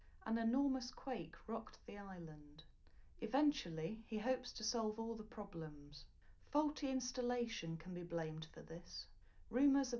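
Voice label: female, live recording, 250 ms, 5 cm; SiSEC11; underdetermined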